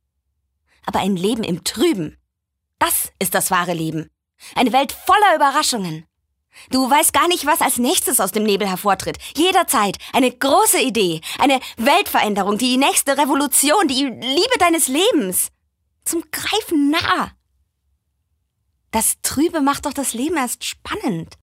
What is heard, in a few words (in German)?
Klarheit Dynamisch